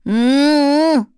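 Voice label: Vox Deny, korean; Cecilia; kingsraid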